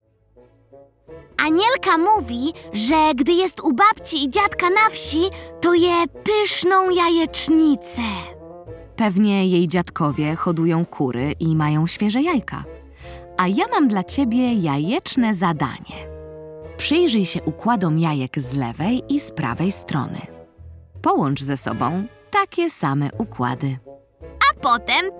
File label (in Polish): narracja; czasopismo mis; lato; jajecznica rozmowa